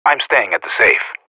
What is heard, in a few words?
radio bot guarding, professional; player vo